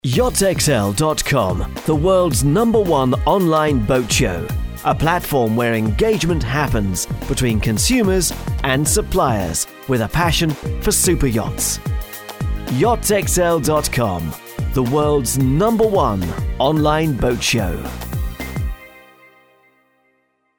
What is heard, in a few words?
corporate; yachtsxl